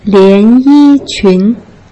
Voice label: "lian yi qun", Putonghua